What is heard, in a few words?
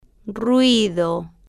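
"ruido", Spanish, female, slow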